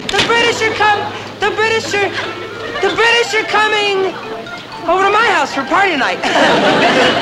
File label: british